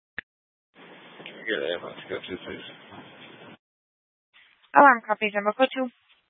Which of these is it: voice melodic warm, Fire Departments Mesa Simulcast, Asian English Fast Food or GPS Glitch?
Fire Departments Mesa Simulcast